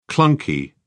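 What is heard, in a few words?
"clunky", English, British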